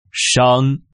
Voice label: "Shang", Chinese

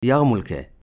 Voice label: "Yarmulke", Hebrew

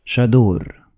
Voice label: "Chador", Arabic; Islam

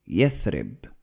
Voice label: "Yathrib", Arabic

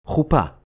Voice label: "Chuppah", Hebrew

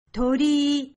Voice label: "Torii", Japanese

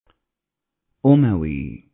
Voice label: "Umayyad", Arabic

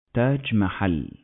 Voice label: "Taj Mahal", Arabic

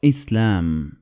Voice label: "Islam", Arabic

